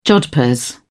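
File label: "jodhpurs", British English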